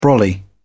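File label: "brolly", British English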